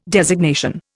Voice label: "designation", Indian